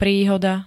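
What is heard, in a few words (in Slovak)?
"príhoda", Slovak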